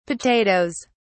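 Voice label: "potatoes", British English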